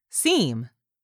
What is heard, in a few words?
"seem", english; 3000 words